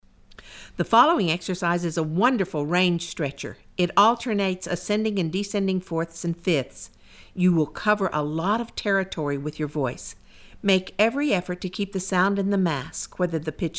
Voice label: High Range Explanation Alternating 4ths And 5ths, warm ups